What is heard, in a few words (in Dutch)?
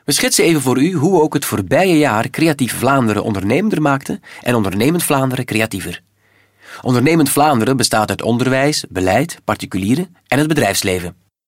Ondernemend Vlaanderen Narration